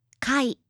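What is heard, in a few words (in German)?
"Kai", japanische Mädchennamen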